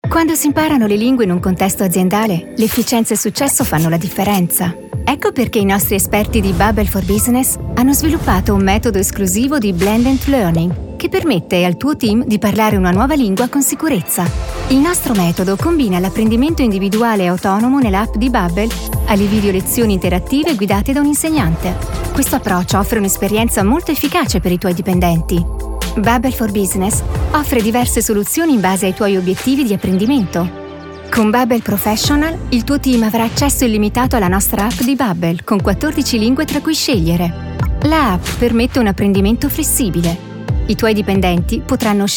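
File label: explainer generic italian; babbel b2b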